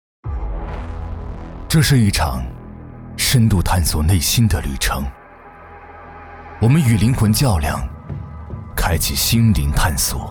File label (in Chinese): 质感低沉